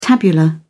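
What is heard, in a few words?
"tabular", British English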